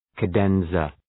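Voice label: "cadenza", English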